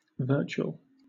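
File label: "virtual", British English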